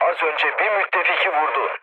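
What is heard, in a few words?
Turkish player killed ally, mood low, speech; War Thunder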